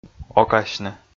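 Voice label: "окасьны", Komi, male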